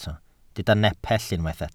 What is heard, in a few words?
"nepell", welsh